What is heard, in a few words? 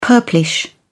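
"purplish", British English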